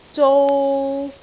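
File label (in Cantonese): "zou3", Cantonese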